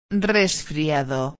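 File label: "resfriado", Spanish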